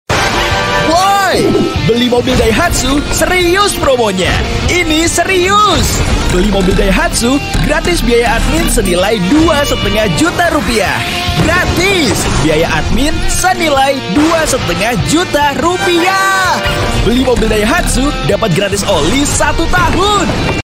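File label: Bahasa Indonesia TV commercial; Daihatsu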